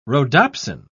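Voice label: "rhodopsin", British English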